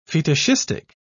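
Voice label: "fetishistic", English, British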